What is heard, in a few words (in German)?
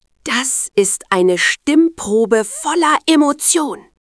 Wütend, stimmen; moods